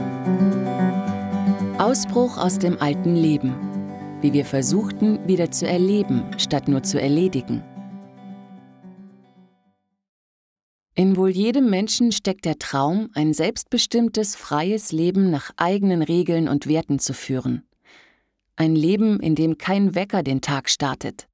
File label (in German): Hörbuch Sample